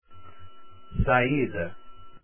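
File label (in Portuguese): "saida" Portuguese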